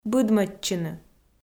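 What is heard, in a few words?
"быдмӧдчыны", Komi, female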